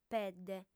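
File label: "pedde", female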